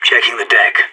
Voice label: checking deck, radio; SAS